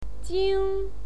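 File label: "jing", Mandarin Chinese pinyin, first tone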